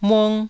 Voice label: "mung1", taiwanese syllables